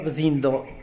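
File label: "bzindo", Tlacochahuaya Zapotec; topo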